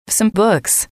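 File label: "some books", English, female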